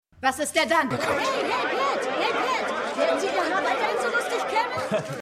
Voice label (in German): Sportreporterin; Winning Time